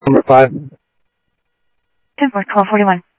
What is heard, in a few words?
Central Sheriff Central, scanner audio trunk